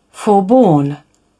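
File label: "forborne", en, uk British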